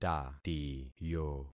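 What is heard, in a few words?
"tatiyo", concatenated syllables; Affixing experiment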